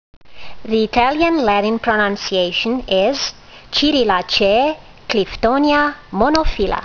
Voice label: latin; Cliftonia monophylla